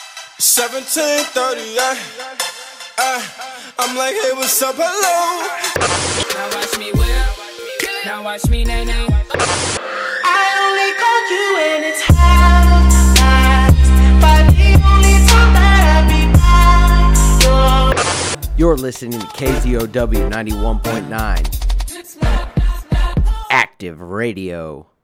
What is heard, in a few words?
MUSIC PROMO; KZOW